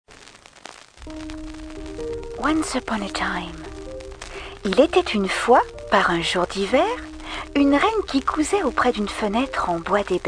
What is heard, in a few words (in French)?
Conteur; Blanche Neige; FR/GB